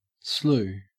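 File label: "slew" English, Australian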